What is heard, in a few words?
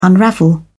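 "unravel", British English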